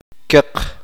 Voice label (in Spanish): uspanteko rojo